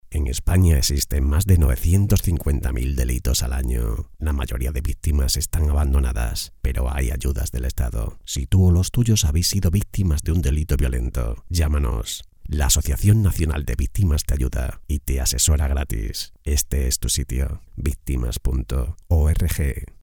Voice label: spot television

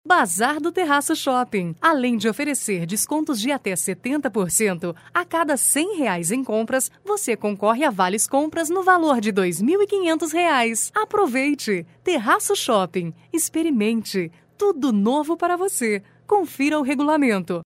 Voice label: locutora brasil; locutora brasileña.

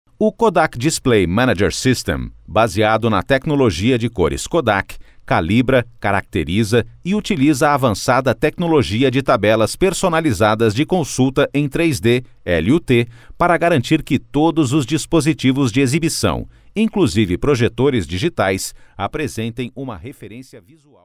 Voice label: locutor brasil; locutor brasileño

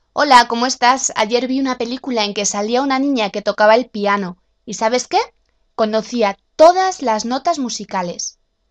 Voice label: locutora

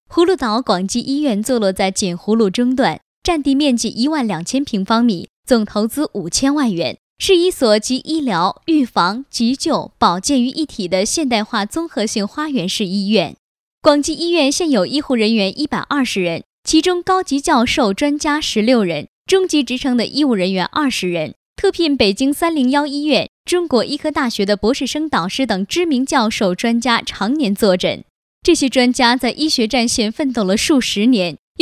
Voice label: locutora china chinese voiceover

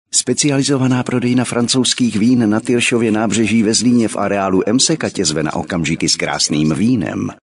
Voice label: locutor checo

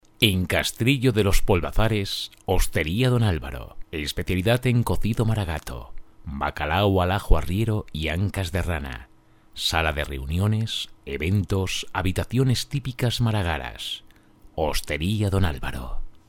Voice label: locutor spain

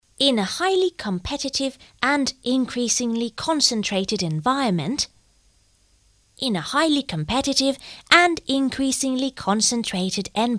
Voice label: voz niña inglés, e-learning; Course for Education and Culture Ministery in several languages: spanish, catalan, valencian, euskara, galician and english. Child voice in

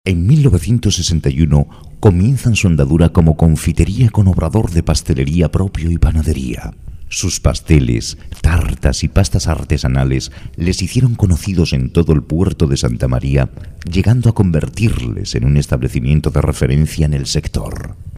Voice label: locutor spain

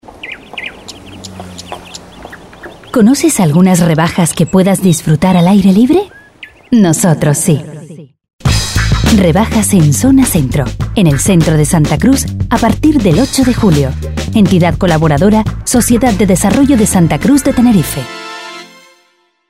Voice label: locutora canaria

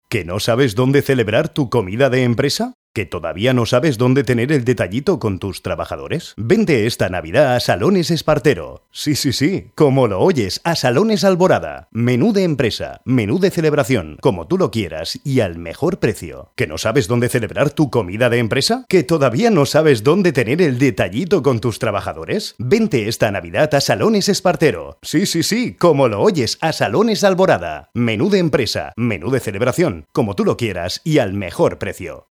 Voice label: locutor espanol

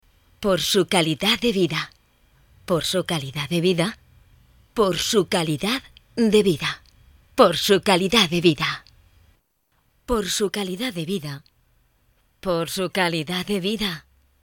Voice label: doblaje video cultural; Dubbing for a cultural video with "Gema".

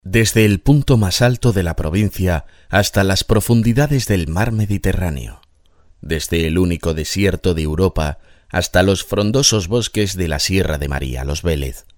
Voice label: locutor spain

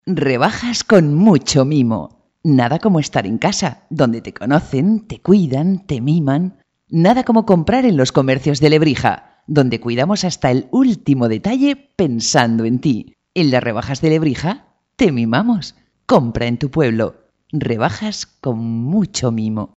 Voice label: locutora

